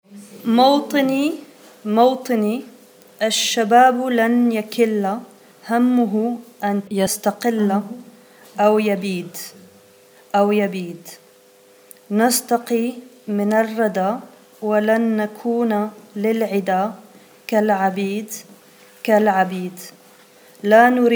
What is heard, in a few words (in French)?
Mawtini prononciation couplet; Mawtini (« ma patrie, mon pays ») est un chant écrit par le poète Ibrahim Tougan